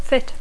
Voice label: "fit", English